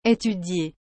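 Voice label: "etudier", French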